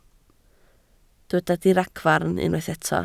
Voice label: "diragfarn", Welsh

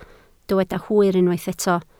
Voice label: "hwyr" Welsh

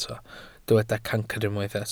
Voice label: "cancr", Welsh

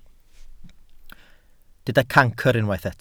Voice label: "cancr", Welsh

